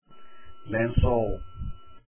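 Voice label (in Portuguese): "lencol" Portuguese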